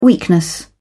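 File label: "weakness", British English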